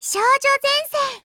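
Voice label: TITLECALL Japanese; M1851N; 少女前线:柯尔特M1851N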